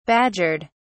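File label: "badgered", British English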